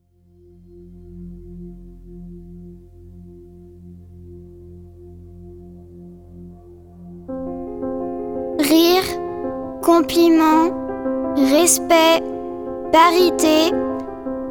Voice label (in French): slam mots amour